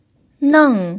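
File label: "neng", pinyin, fourth tone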